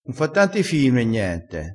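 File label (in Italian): "fa fii", vocabolario dialettale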